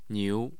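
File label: "niu", Mandarin Chinese, tone 2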